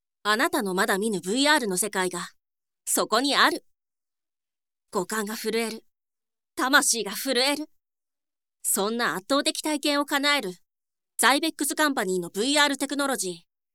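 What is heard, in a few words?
boy narration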